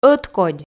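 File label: "ӧткодь" Komi, female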